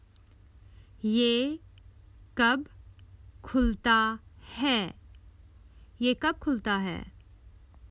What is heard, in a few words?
Common Hindi Phrases for travel When does it open